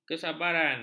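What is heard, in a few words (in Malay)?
"kesabaran", Malay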